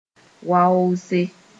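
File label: "waoose", Mooré; dirt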